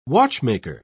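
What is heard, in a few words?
"watchmaker", British English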